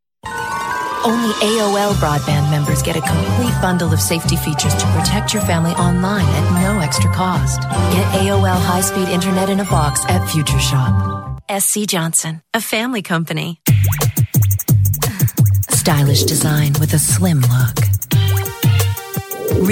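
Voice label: female, Canadian English, commercial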